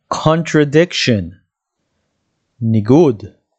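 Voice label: "contradiction" English, "ניגוד" Hebrew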